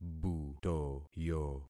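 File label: "butoyo", concatenated syllables; Affixing experiment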